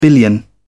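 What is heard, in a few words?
"billion", English, uk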